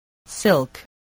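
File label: "silk", spoken word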